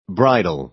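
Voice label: "bridle", American English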